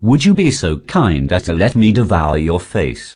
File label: posh headcrab hello; garrysmod